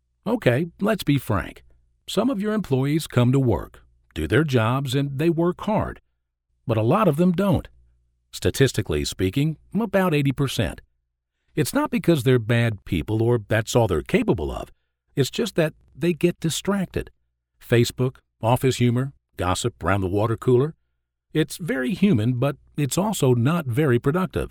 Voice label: Conversational